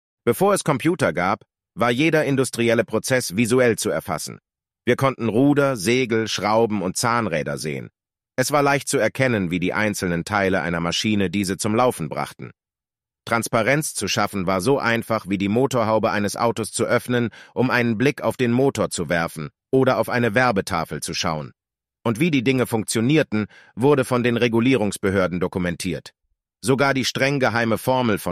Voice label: Voiceover Generative AI DE